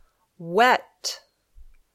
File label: "wet", English